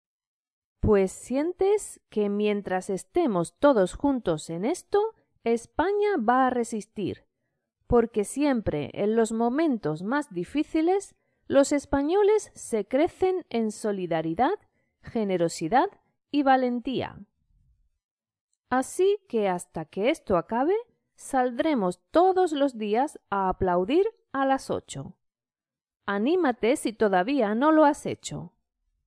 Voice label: Spanish reader Aplausos a los sanitarios